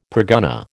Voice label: "pergunnah", American